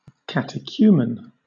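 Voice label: "catechumen", British English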